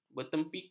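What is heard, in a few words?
"bertempik", Malay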